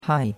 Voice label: "hai4", chinois; Cours-de-Chinois

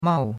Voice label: "mao4", chinois; Cours-de-Chinois

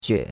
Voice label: "jue3", chinois; Cours-de-Chinois

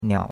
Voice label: "niao3", chinois; Cours-de-Chinois

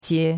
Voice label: "qie1", chinois; Cours-de-Chinois

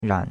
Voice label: "ran3", chinois; Cours-de-Chinois

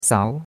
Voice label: "sao2", chinois; Cours de Chinois

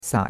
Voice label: "sai3", chinois; Cours-de-Chinois

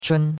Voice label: "chun1", chinois; Cours-de-Chinois

